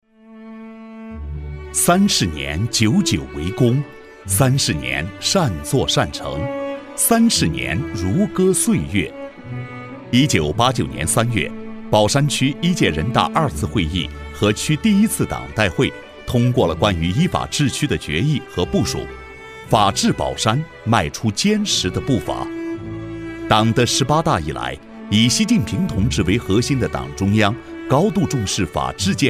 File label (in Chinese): 男 宣传片 大气娓娓道来 适合讲述汇报片